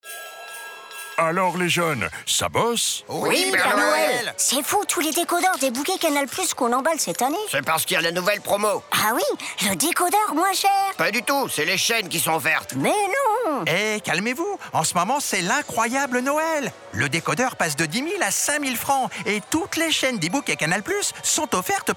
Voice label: voix speciale; voix-off; Canal plus Afrique

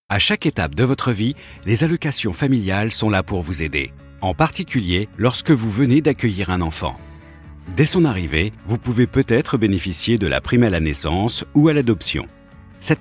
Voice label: Voix Off Motion Design Posé sobre droit; CAF

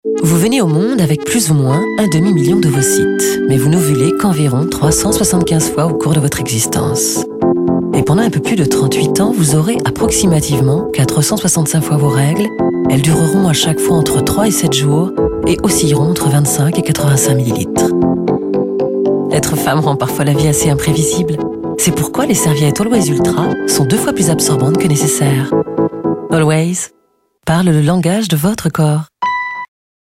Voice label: voix droite informative; ALWAYS

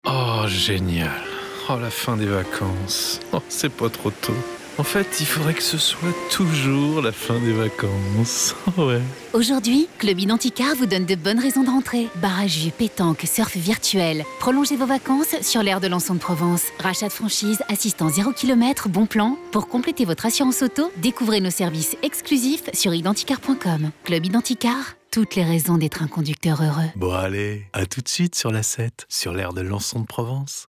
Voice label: radio; IDENTICAR

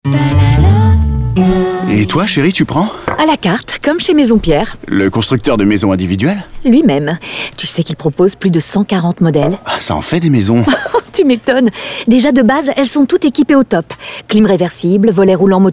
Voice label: Spot Radio Comedie duo; MAISONS PIERRE

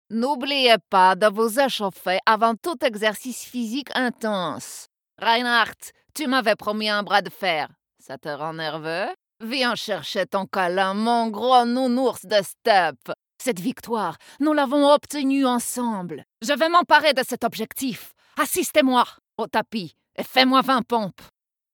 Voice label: La Guerrière Jeu Vidéo; Zarya

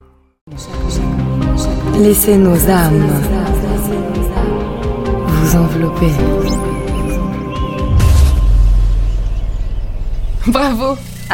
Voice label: Podcast; Destination G2084 Blue

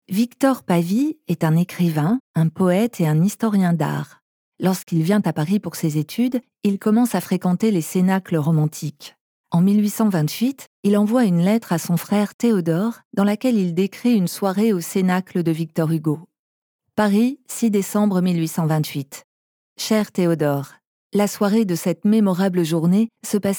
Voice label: Audioguide Exposition Les salons litteraires

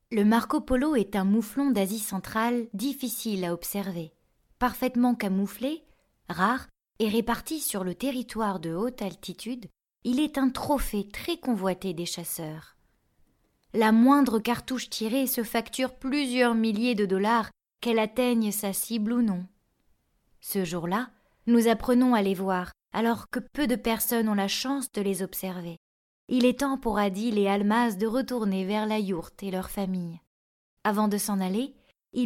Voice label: Documentaire

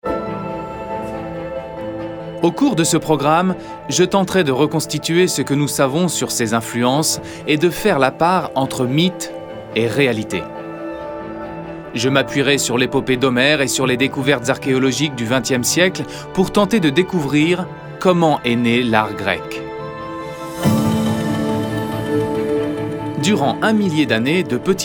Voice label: Narration Grèce

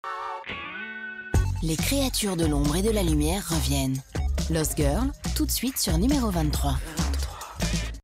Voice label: bande annonce

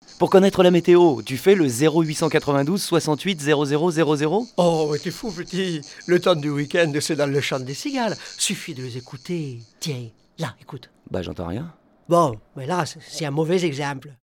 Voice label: METEO accent du midi

